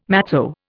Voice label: "matzo", Indian

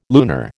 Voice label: "lunar", American

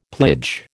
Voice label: "plage", American

